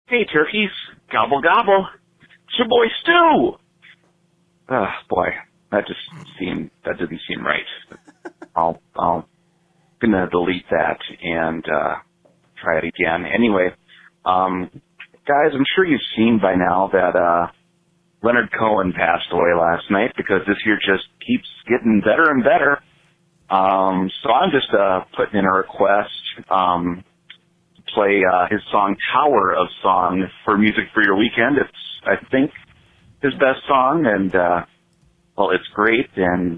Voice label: voicemail